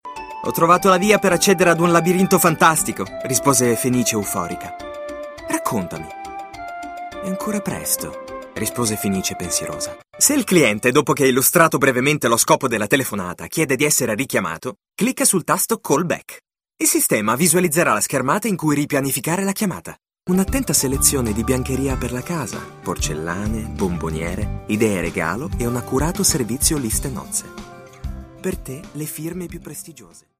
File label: Italian